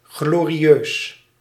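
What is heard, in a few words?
"glorieus", Dutch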